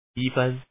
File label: Man, lord